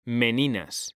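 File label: "meninas", Spanish, male; mekanta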